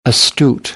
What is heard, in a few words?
"astute", American English; t - ʧ